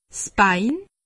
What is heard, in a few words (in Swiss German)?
"bein", alsace; medecine